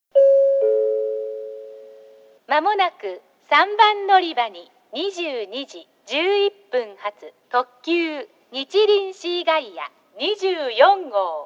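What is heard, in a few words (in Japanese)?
3番のりば予告放送, 女声; 行橋; にちりんシーガイア24号 博多行き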